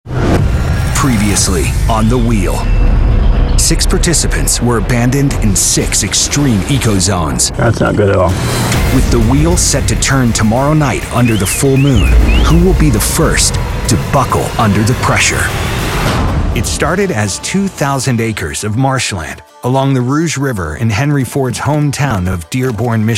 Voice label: narration men